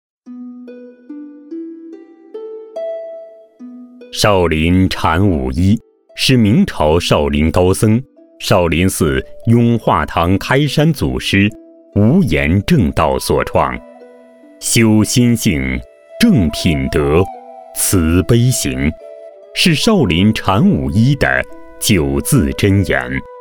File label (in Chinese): 男 非物质文化类样音; 少林禅武医